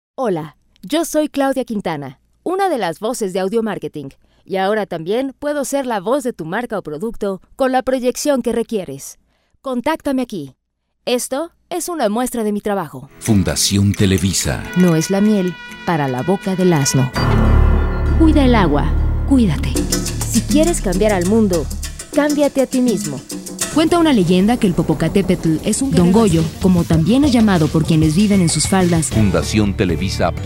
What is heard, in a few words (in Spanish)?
Locutora